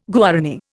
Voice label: "Guarani", Indian